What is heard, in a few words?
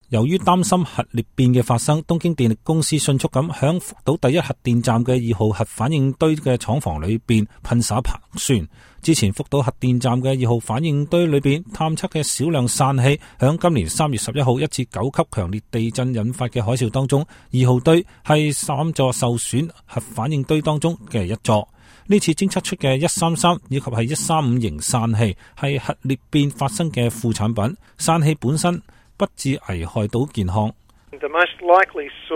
cantonese